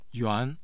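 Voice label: "yuan2", Mandarin Chinese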